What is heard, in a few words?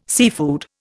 "seafood", Indian